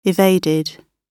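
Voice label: "evaded", British English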